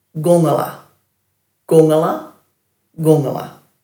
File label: "gungala", Dhurga; next to, beside